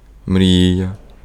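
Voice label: Ukrainian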